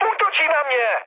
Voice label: aircraft damaged, speech cze, mood high; War Thunder

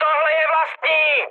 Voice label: player friendly fire, speech cze, mood high; War Thunder

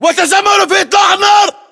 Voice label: order action boost, battle chatter, english

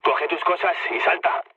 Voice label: bailout, mood low, speech; War Thunder

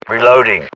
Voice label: UK inform reloading generic, battlechatter

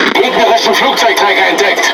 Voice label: Speech Detection Enemy Aircarrier, DE; World Of Warships

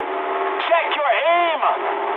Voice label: eng player friendly fire mood high, speech eng; War Thunder

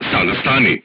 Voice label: voice message short stop, crew tanks serbian

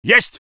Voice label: voice sov infantry

